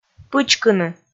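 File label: "пычкыны", Komi, female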